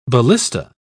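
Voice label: "ballista", British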